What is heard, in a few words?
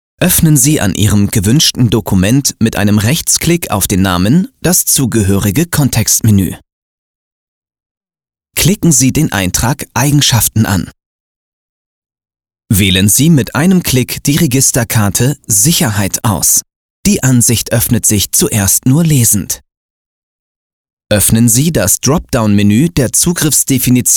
Tutorial; LOFT VOICES